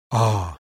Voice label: breathy voiced open front unrounded; IPA

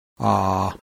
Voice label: creaky voiced open front unrounded; IPA

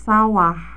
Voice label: "sawah", indonesian

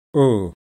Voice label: close-mid central rounded; IPA

